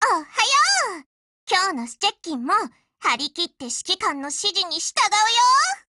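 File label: HELLO Japanese, Voice; GF Alarm; APSMod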